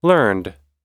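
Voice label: "learned", US English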